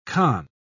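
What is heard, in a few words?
"khan", British English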